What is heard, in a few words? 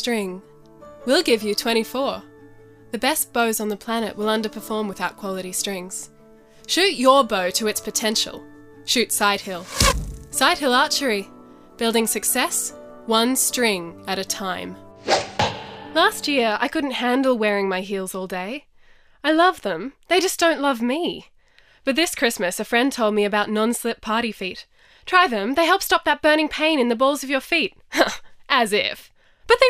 Voice Over